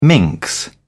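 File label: "minx", British English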